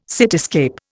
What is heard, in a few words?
"cityscape", Indian